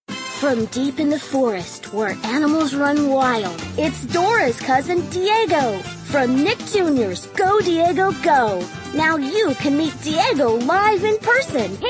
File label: Promos for Kids Products